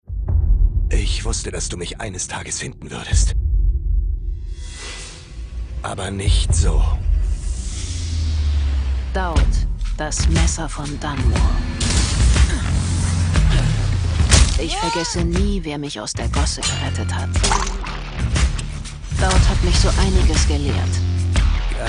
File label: trailer; dishonored